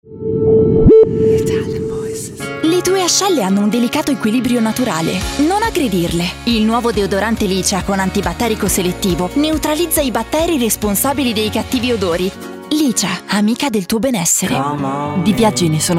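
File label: ITALIAN VOICES Commercials, female voices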